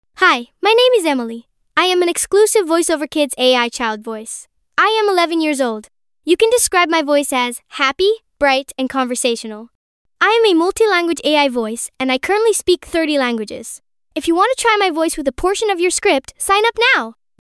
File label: girl11; voiceoverkids